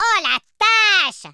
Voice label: bummer, French